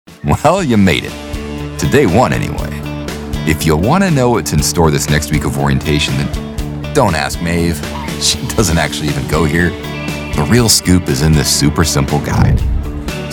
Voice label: E Learning